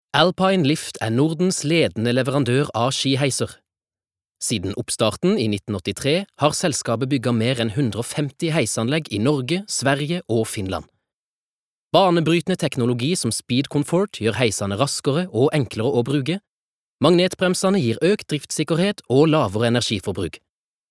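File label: Norwegian corporate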